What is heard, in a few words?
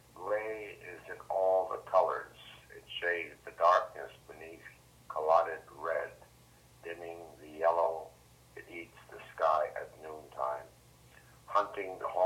Reading; Reading Grey